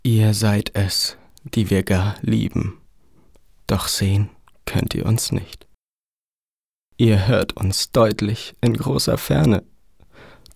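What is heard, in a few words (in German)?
traurig, Männer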